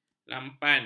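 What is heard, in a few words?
"lampan", Malay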